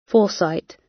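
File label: "foresight", British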